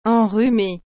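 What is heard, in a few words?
"enrhume", French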